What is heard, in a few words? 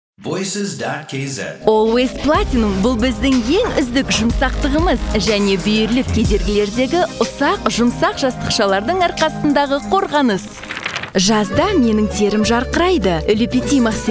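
Kazakh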